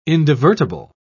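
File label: "indivertible", British English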